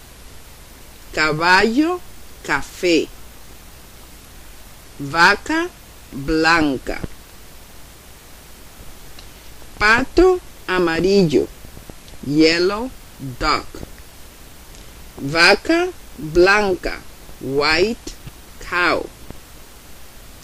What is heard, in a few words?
Count animals Spanish; child care